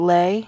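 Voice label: "lay", Nonnative, American English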